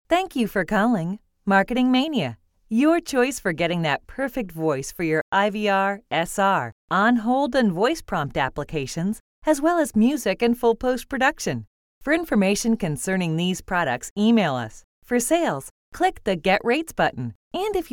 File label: Voice Sample, onhold